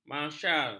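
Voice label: "marsyal", Malay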